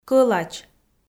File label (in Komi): "кӧлач" Komi